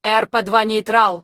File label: girl